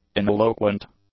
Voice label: "ineloquent", English; adjectives